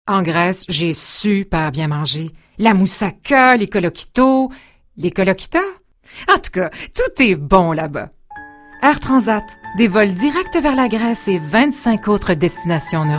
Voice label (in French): Demo Personnages